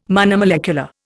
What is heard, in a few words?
"monomolecular", Indian